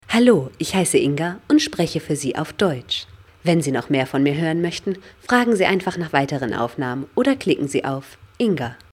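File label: German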